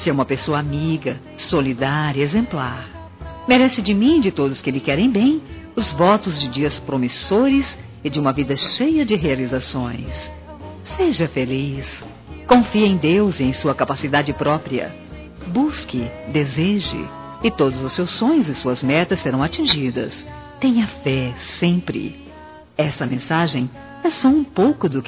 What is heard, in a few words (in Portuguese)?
ANIV. NEUTRO F